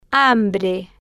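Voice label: "hambre", Spanish, slow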